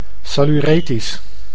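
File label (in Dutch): "saluretisch", Dutch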